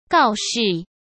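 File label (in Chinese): "告示", Mandarin Chinese; chinese-flashcards; hsk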